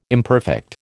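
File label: "imperfect", American English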